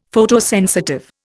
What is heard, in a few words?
"Photosensitive", Indian; Dictionary